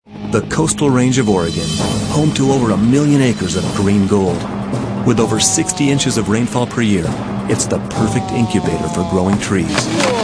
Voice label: male narration